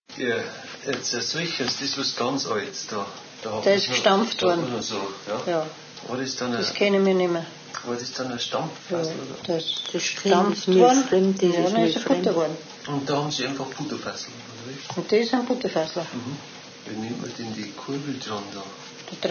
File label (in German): Nordbair Kruppach